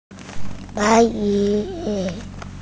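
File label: "white cloud", Mandarin Chinese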